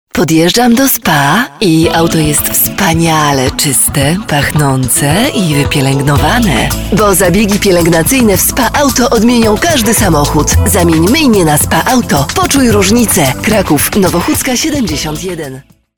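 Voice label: Polish, female, commercial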